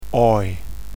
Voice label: "toy", phoneme